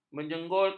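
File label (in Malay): "menjengulkan", Malay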